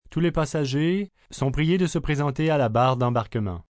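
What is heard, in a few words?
Gate Depart French, Airport, PA, announcements, male, French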